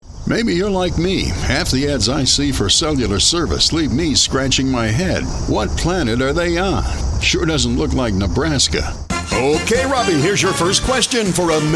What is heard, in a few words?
Character